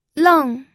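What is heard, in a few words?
"leng", fourth tone, pinyin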